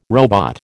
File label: "robot", American